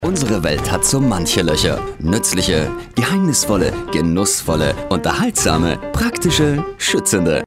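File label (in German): Austrian, commentary, Freundlich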